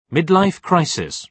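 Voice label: "midlife crisis", British English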